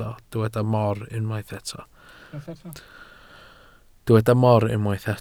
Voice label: "mor", Welsh

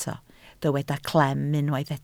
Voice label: "clem", Welsh

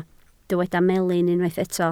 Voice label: "melin", Welsh

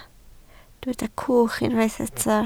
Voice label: "cwch", Welsh

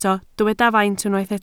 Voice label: "faint", Welsh

